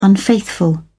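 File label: "unfaithful", English, uk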